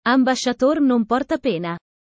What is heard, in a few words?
italian sayings about life